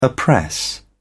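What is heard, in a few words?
"oppress", British English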